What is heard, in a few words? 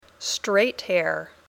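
"straight hair", English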